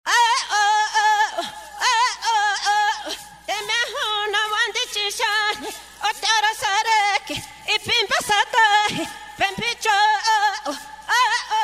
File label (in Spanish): mujer achuar